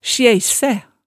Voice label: "sjæissæ", hemsedalsmaalet

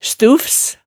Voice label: "stufs", hemsedalsmaalet, tale

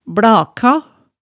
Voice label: "blaka", hemsedals maalet tale

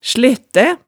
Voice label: "slettæ", hemsedalsmaalet

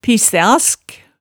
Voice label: "pissæask", Hemsedal

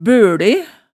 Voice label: "bøle", hemsedalsmaalet tale

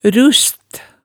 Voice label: "rust", hemsedalsmaalet, tale

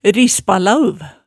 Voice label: "rispalaauv", Hemsedal

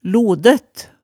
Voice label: "lodøtt", hemsedalsmaalet tale

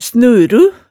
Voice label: "snuru", hemsedalsmaalet

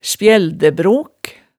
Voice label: "spjældæbrok", hemsedalsmaalet

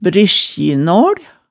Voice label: "briskjinaal", hemsedalsmaalet tale

